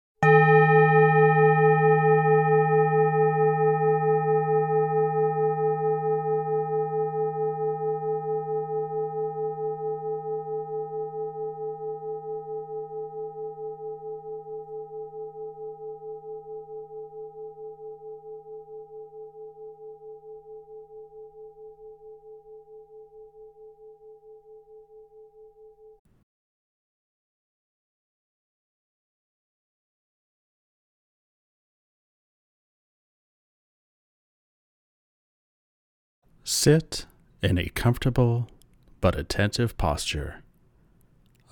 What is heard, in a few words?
Breath Meditation; Recovery Dharma; Quiet Mind